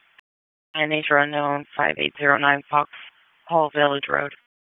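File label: Police Northeast District Dispatch; Raleigh; wake; p25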